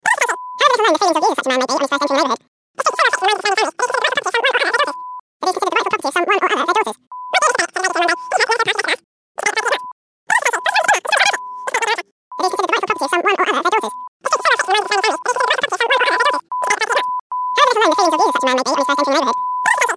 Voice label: Cartoon Voice

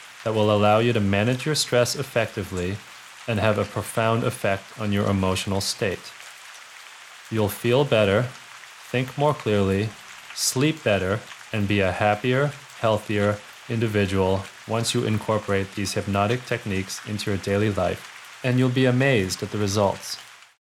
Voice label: Learn Relax Hypnosis

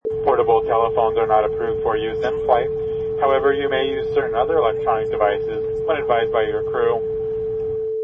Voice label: Stewardess Electronics Announcement

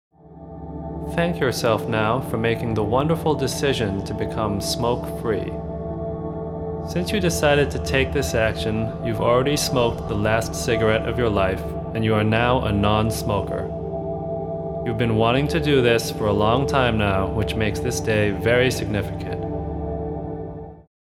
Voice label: Stop Smoking Hypnosis

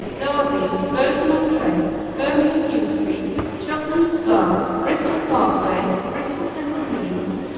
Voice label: leeds announce

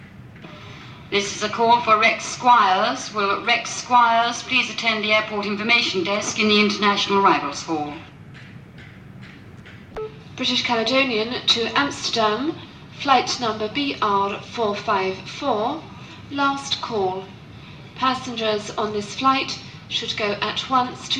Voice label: LGW announcements

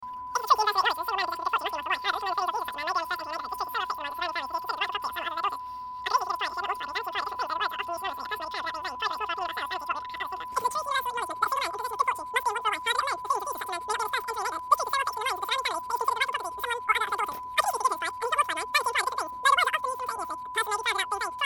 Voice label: Talk Medium

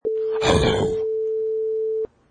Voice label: Evil Hello

